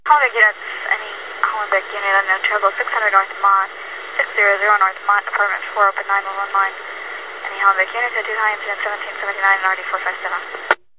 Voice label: police radio garbled female; MISC and SPOKEN WORD